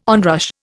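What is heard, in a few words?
"onrush", Indian English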